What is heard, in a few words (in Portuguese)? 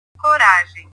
"coragem", portugues